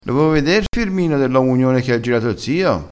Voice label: "firmino", crespinese

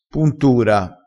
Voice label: "puntura", crespinese

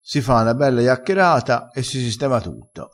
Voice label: "chiaccherata", crespinese

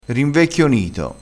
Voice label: "rinvecchionito", crespinese

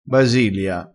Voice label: "basilia", vocabolario dialettale crespinese

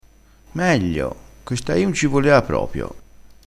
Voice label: "meglio palaia", crespinese

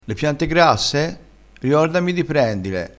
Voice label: "prendile", crespinese

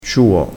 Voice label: "ciuo", crespinese

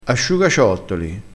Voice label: "ciottoli", crespinese

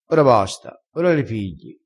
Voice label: "piglia", crespinese

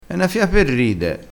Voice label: "fia", crespinese

